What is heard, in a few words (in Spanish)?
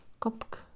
presidente, Mixe, Mujer; Moderno; INALI